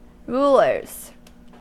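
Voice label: "rulers", American English; pronunciations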